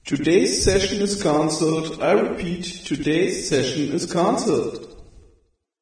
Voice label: announcement session